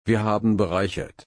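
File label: "bereichern", German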